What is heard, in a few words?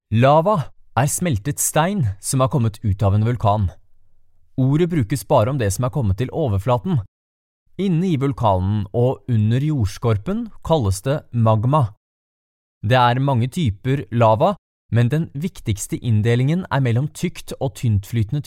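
Norwegian, male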